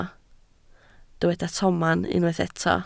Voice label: "tomen", Welsh